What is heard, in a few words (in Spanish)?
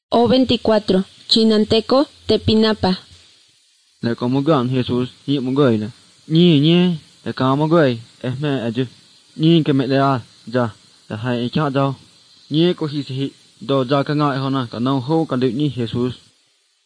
spanish mexico